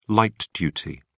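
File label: "light-duty", English, British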